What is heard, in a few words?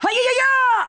voice sample EN; Pit; SSBB